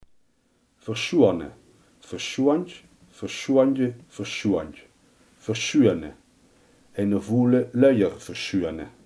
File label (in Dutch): "verschonen", limburgse